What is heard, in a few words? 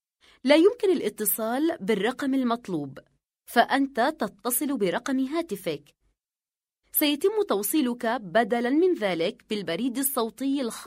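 Arabic, female, various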